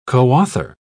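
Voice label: "coauthor", British English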